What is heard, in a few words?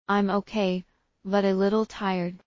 fast; assessment